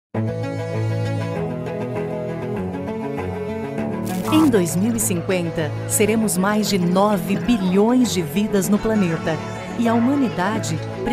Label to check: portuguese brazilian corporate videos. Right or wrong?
Right